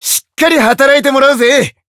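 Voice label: Voice zodiac burst, Japanese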